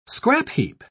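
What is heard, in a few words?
"scrapheap", British English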